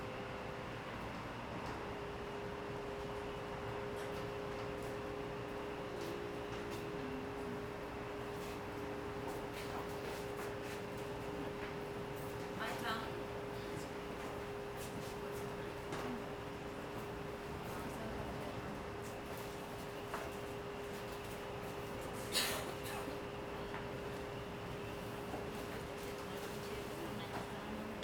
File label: Newspaper Spanish